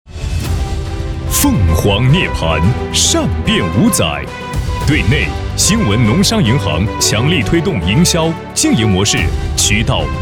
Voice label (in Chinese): 高端男大气激情; 兴文农商银行